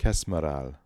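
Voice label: "Kezmaral", pronunciations; Fourth Way; Beelzebub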